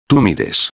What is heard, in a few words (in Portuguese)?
"mides", espanhol